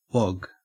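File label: "wog", Australian English; pronunciations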